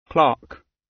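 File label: "clerk" British; Business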